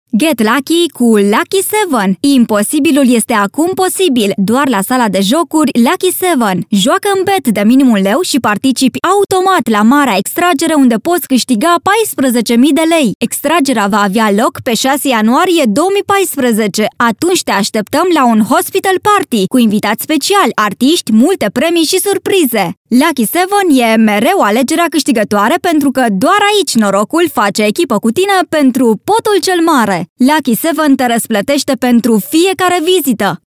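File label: romanian female commercial; voice demos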